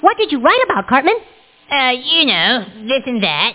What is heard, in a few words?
chat